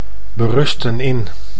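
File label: "berusten in", Dutch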